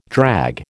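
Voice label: "drag", American English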